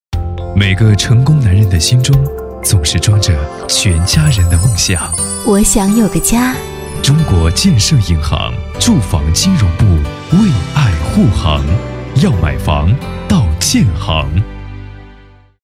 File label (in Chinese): 男 大音 温暖 柔情 银行; 建设银行